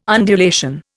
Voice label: "undulation", Indian